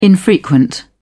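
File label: "infrequent", British English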